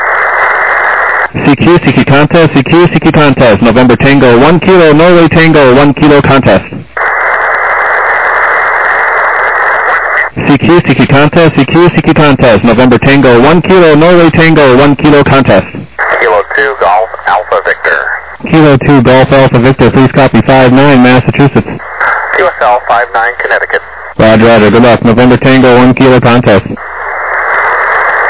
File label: USB 28MHz; ARRL10M